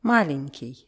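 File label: "malenkij", Russian; НЕ-Wörter mit Synonymen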